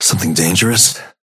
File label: synth match start atlas synth convo; Abrams; Voice lines